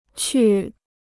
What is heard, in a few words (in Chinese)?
"去", Chinese